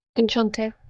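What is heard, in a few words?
Voice Acting es, female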